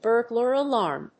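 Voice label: "burglar alarm", English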